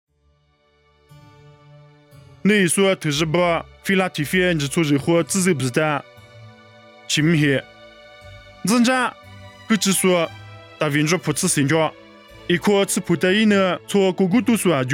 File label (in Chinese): 凉山彝语; 地中海非拉铁非